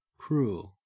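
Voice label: "cruel", Australian English; pronunciations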